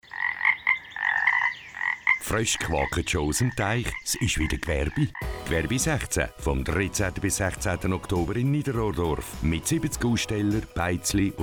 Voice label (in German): Radiospot